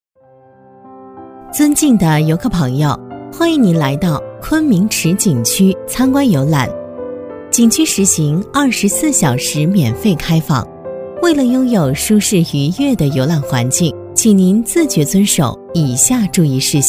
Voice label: 女 景区温馨提示 亲切大气, 舒缓抒情

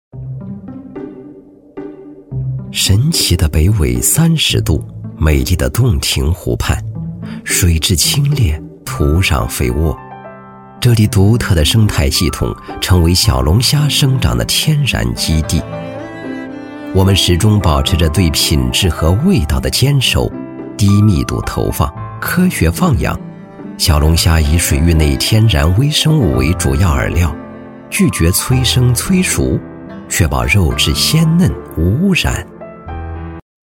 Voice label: 男, 纪录片, 小龙虾, 舌尖感觉; 历史感强 舌尖感

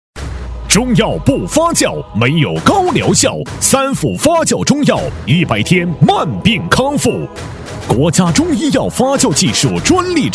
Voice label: 男 中药发酵广告 超有力度; 男W3-喊麦激情 激情力度

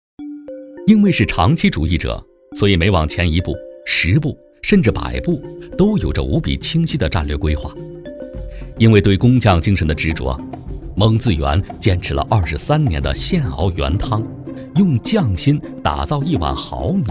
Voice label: 男 舌尖纪录片; 大气浑厚; 米线大王

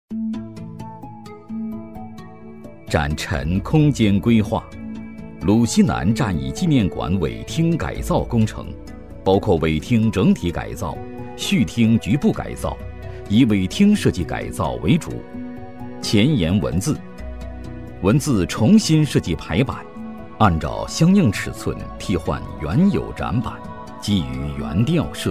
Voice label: 男 展陈介绍 厚重讲解, 高端内涵 自然诉说; 鲁西南战役纪念馆

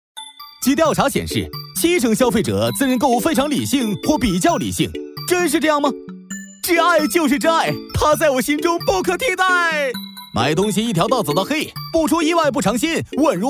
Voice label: 男C6-MG飞碟-年轻幽默诙谐, 年轻全能 幽默搞怪

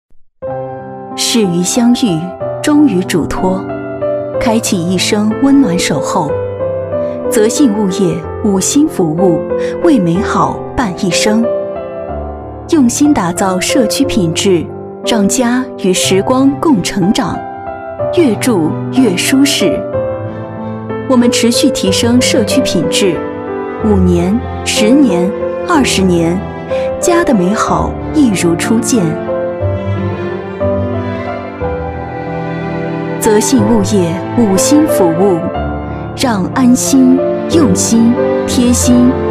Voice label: 女 宣传配音 大气稳重; 深情感人; 《泽信物业》

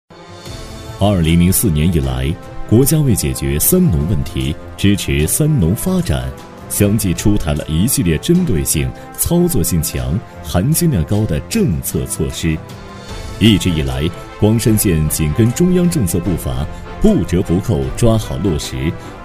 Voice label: 男 三农专题 厚重讲解感; 男D5-厚重有力 大气浑厚

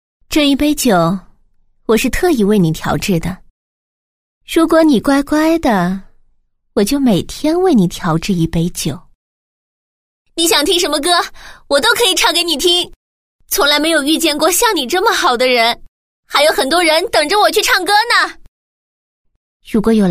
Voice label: 女-游戏CG【四个人物角色-御姐-明亮青春-小萝莉-伤感婉转】; 可爱

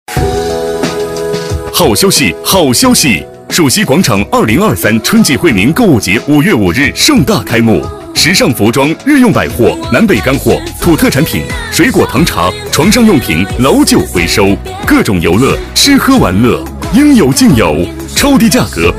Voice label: 激情促销男; 激情力度; 蜀西广场惠民购物节

